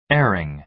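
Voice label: "airing", American English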